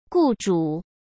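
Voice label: "雇主", Mandarin Chinese; hsk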